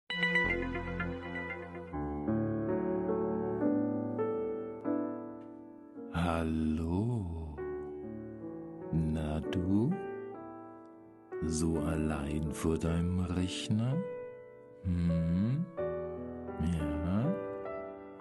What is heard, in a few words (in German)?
werblich